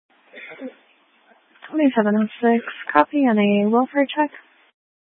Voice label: Mesa County Sheriff Dispatch